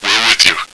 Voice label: escort, radio, male